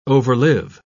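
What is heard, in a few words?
"overlive", British English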